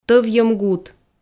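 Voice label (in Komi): "тӧвйӧм гут", Komi, female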